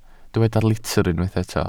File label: "litr", Welsh